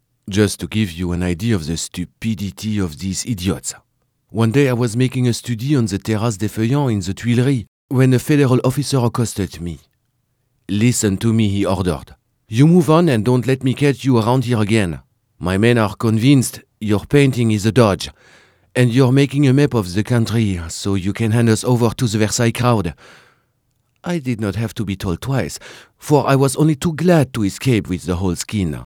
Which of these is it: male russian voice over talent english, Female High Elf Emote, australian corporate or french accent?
french accent